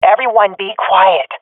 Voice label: request quiet, swat fem; vo; agents; Source Model Packs; Counter Strike Model Pack; CS2

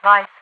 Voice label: radiovoice; overwatch; Half Life 2

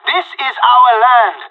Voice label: on a roll brag, pirate; Counter Strike Model Pack; CS:GO

